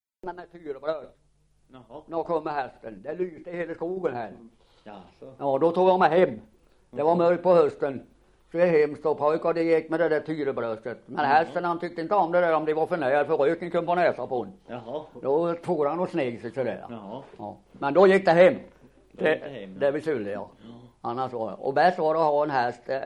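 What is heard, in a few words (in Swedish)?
Svinhult Östergötland, dialekter, svenska sagor; Folkminnen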